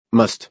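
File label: "must", American English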